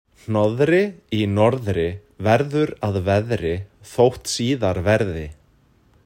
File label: icelandic slow; phon lab